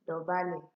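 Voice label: "dobale", Nigerian Pidgin, female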